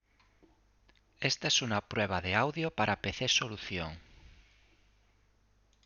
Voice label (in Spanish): Microfono razer nari ultimate